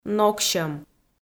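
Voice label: "ноксьӧм", Komi, female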